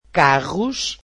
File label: "car", Portuguese